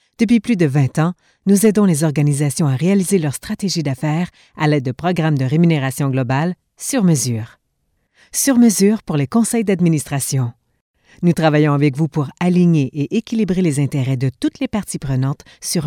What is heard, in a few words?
narration French; TaDa